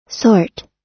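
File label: "sort", US English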